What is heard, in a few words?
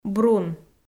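"брун", Komi, female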